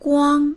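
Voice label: "guang1", Mandarin Chinese; 光; 光、明るくする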